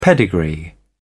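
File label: "pedigree", English, British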